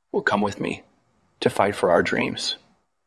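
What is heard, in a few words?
VoiceActing es M2, voice acting, Spanish, male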